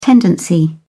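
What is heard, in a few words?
"tendency", British English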